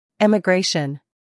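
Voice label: "humor", British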